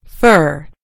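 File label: "fur", American English; gcompris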